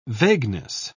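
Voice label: "vagueness", British English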